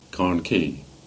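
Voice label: "Carnkie" Cornish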